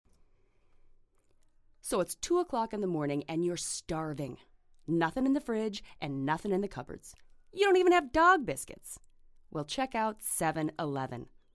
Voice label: Voiceover